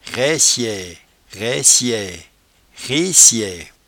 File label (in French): "raichee", patois jura